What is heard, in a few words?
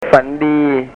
"fan dii", Thai